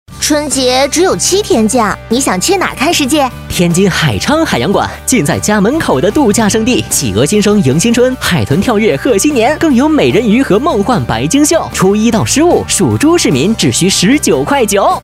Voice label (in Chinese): 男 广告; 天津海昌海洋馆